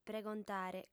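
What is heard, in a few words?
"pregontare", female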